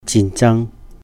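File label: "jin jàng", war, Cantonese; 戰爭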